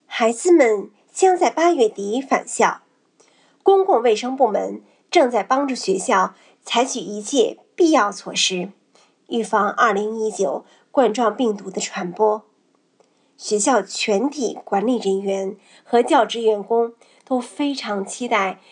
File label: Message Mandarin